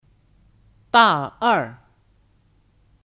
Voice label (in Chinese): "大二", Dà èr; Kata benda; Mahasiswa tahun kedua (Singkatan dari 大学二年级 大学二年级)